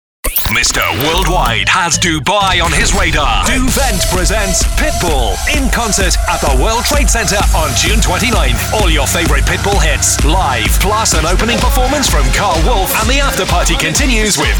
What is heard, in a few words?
English British TV shows